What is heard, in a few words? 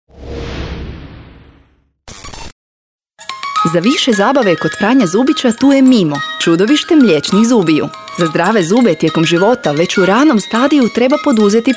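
croatian female commercial, voice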